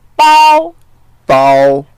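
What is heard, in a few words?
"bao1", Mandarin Chinese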